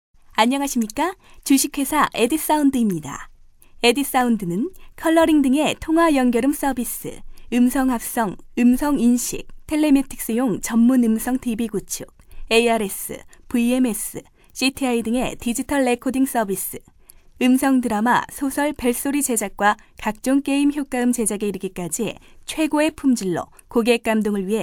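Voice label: Korean female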